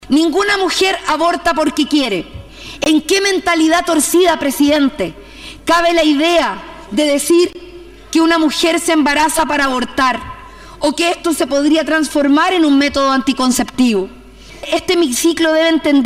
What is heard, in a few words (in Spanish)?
CUÑA; CARIOLA